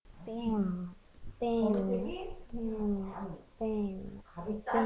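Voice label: "beam", spoken word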